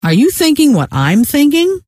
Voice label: thinking what, scientist female